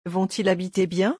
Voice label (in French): "vont-ils habiter bien" French; pronunciation; forbidden liaisons